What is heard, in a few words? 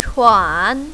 "chuan3", mandarin, female